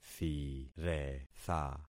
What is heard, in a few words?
"thiretha", concat syllables; Affixing experiment